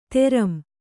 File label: "ತೆರಂ", Kannada; teram; thoroughly, carefully or soundly.